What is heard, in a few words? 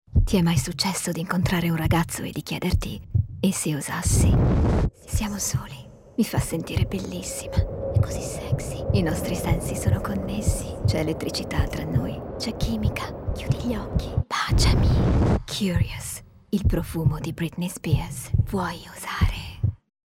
curious, ITALIAN